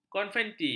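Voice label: "konfeti", Malay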